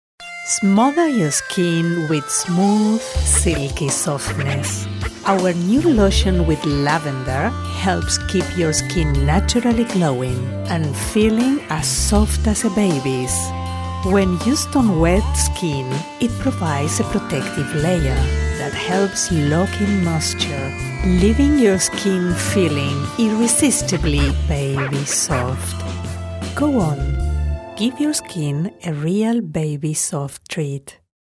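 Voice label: ESLA AccEN, Latin American Spanish accented English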